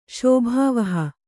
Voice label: "ಶೋಭಾವಹ", kannada